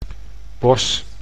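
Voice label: "bos", Dutch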